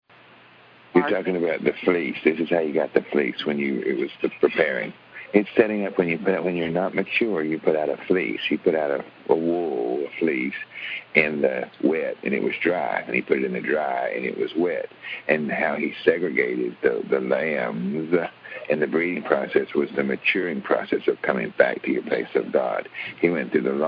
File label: rant